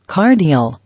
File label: "Cardial", English